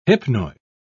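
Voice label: "hypnoid", British English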